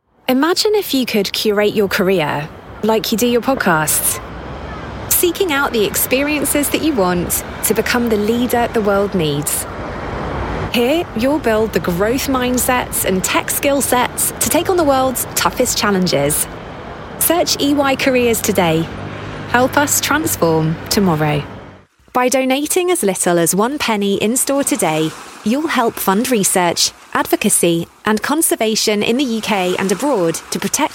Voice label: natural narration